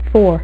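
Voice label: "four", female; MacCribbage; InterStellar MacCribbage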